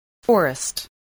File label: "forest", English voice, spelling